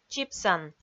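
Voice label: "чипсан", Komi, female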